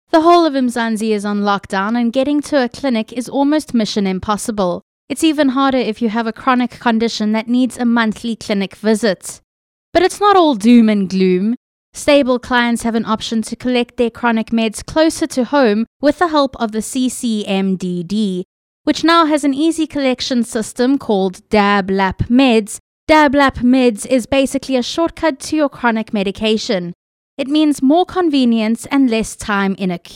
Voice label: english psa

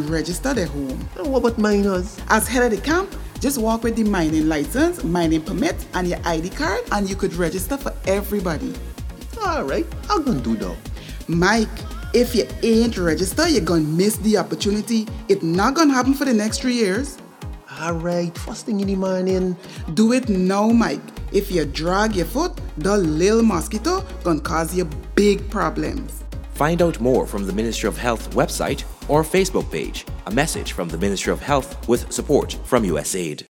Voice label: LLIN Pre Distribution Radio Spot; Guyana; Johns Hopkins Center for Communication Programs

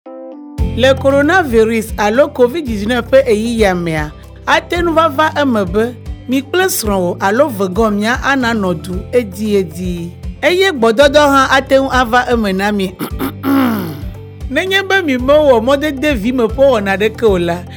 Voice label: Spot, Ewe

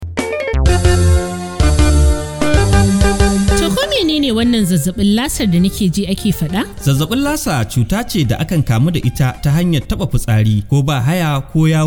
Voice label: Spot, Hausa; Lassa Fever

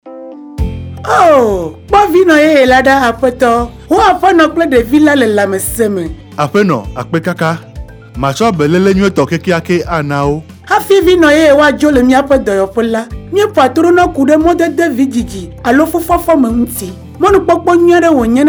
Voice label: Spot, Ewe

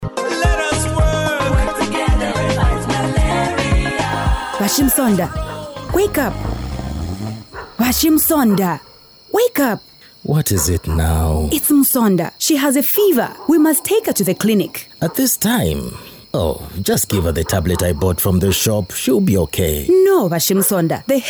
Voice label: MALARIA RADIO SPOT ENGLISH

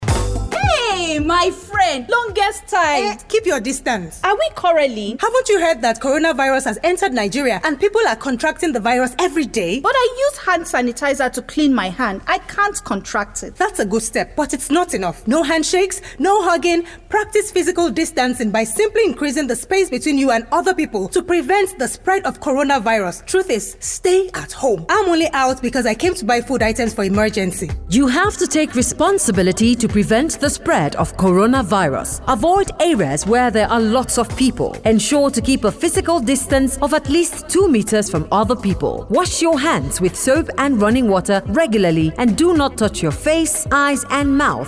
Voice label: Supermarket English

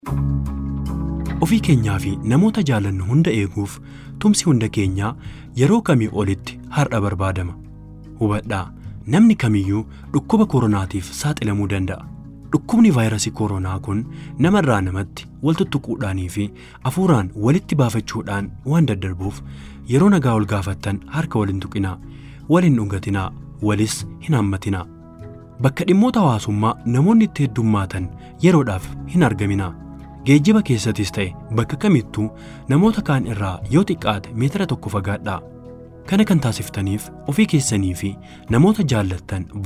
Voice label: Spot, Oromiffa; Physical distancing

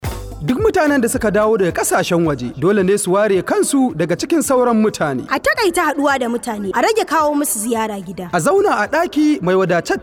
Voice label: Hausa; Self Isolation

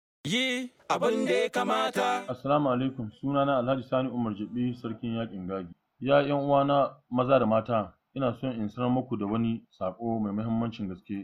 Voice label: TRADITIONAL Leader, Hausa

